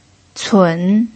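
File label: "cun" third tone, Mandarin Chinese pinyin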